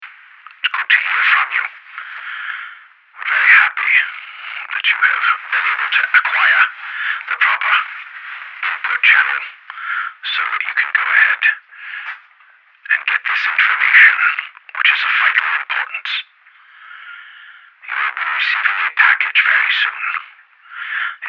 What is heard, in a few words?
Intro Radio Broadcast